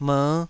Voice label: "mer5", taiwanese